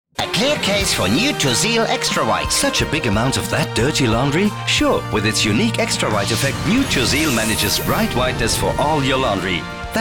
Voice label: englisch comic; Werbung Englisch; Tursil